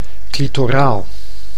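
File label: "clitoraal", Dutch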